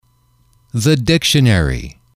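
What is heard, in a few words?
"the dictionary", English, male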